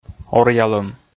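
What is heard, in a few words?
"оръялӧм", Komi, male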